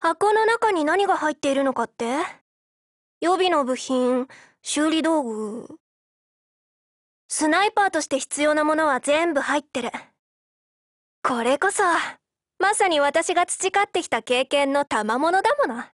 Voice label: DIALOGUE JP